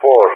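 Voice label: "for", English, GREECE; Allied Flight; DCS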